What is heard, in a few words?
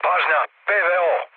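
Serbian damaged aa mood low, speech; War Thunder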